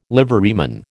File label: "liveryman", American